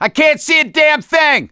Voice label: misc directional, voice; gambler